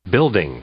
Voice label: "building", English; noun

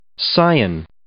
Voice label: "scion", English

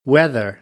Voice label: "weather", American, English; ɚ - əɹ

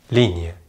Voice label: "ligne", French

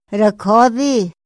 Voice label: "ra kjodi", Otomí; Días de la semana; INPI; INALI

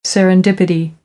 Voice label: "serendipity", American English

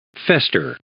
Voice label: "fester", English

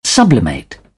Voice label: "sublimate", British English; ə - ɪ

